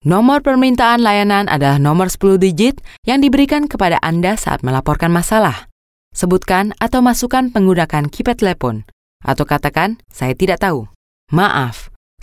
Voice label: Bahasa Indonesia Corporate VO, Bahasa Indonesia Female